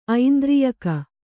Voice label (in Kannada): "ಐಂದ್ರಿಯಕ", Kannada